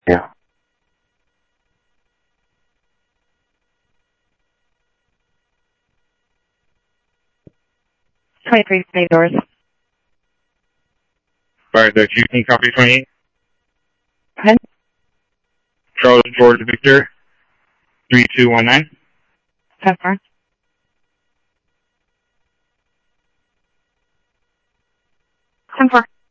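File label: Lakes Sheriff Lake Patrol, scanner audio trunk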